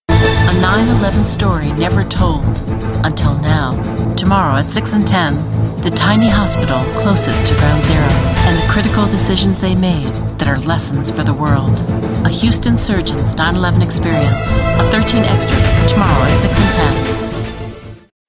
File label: news promo, female voice over